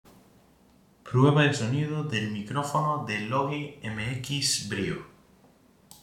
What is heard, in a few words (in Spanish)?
Prueba de sonido Logi MX Brio